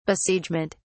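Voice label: "besiegement", British English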